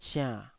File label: "siann3", taiwanese